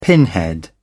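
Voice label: "pinhead", British English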